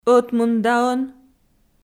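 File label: "ӧтмындаӧн", Komi, female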